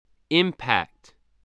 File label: "impact", English